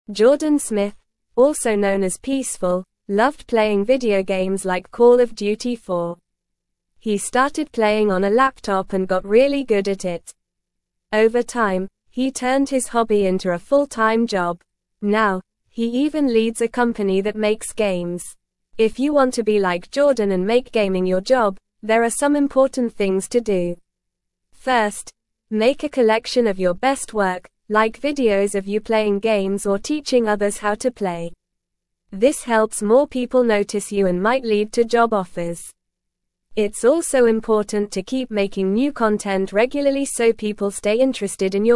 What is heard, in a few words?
English Lower Intermediate NORMAL Reading; Playing Games Can Be a Job